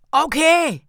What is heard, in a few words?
"ok", Voices; Trafalgar; Quarry